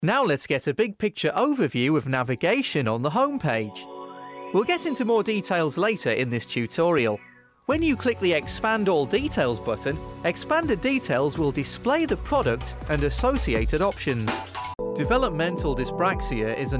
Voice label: eLearning Montage

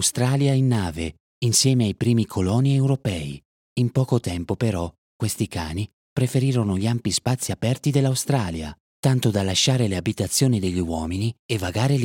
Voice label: DINGO DOG CHARACTER FOR CHILDREN

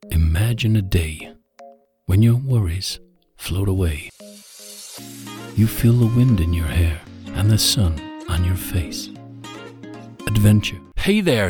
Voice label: Voice Reel US

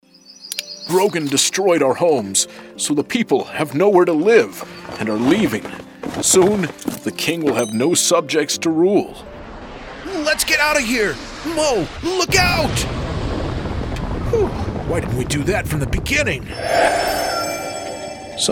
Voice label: Video Game Character

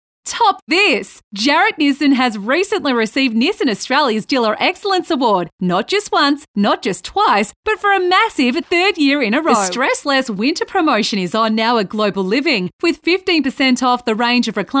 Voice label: Hard Sell

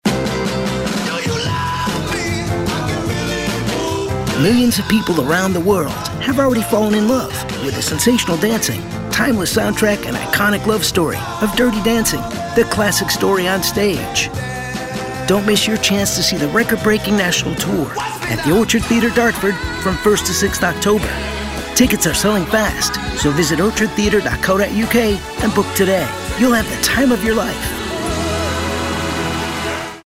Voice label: Radio Commercial

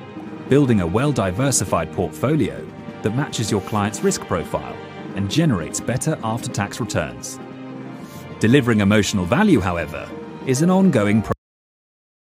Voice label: Financial, corporate voice over; Vanguard

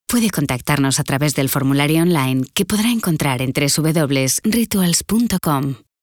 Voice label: IVR SPANISH; RITUALS

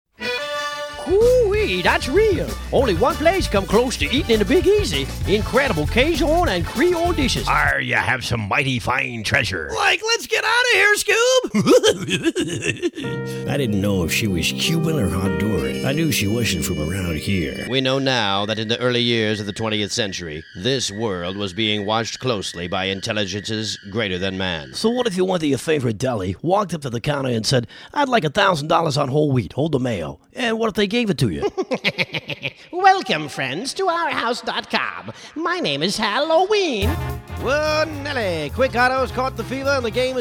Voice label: Character voices

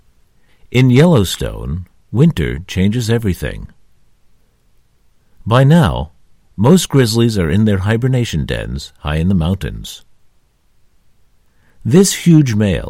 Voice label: Dry Studio Read

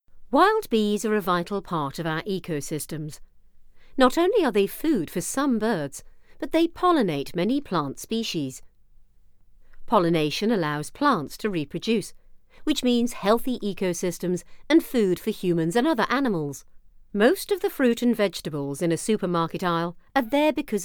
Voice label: visual thinking e learning

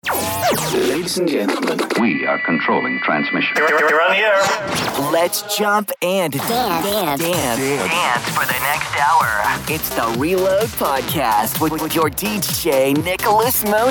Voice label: Podcast

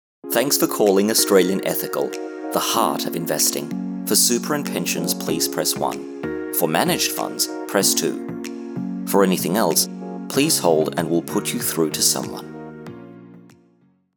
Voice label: on hold Australian; Australian Ethical

